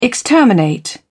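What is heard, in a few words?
"exterminate", British English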